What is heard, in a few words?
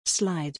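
"slide", English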